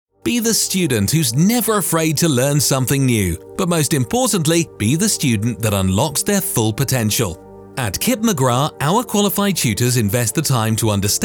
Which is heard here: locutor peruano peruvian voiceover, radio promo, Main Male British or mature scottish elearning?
Main Male British